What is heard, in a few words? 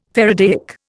"faradaic", Indian